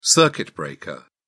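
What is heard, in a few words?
"circuit breaker", British English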